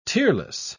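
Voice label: "tearless", British English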